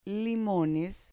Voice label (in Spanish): "limones", Spanish